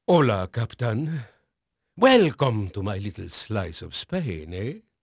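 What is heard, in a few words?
Spanish male, voice; Pirates Of The Caribbean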